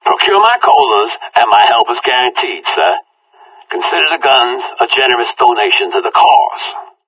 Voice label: conversation; Left 4 Dead 2; Source Engine; video games; npc; whitaker